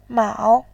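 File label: "mao", Mandarin, tone 3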